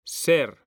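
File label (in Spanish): "ser", Spanish; mekanta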